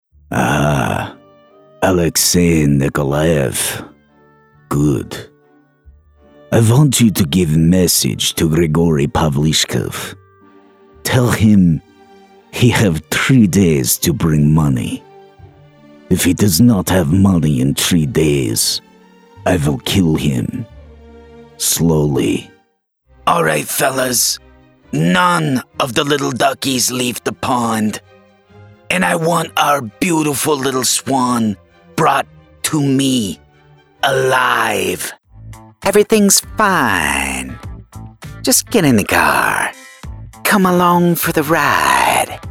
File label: Gangster Compilation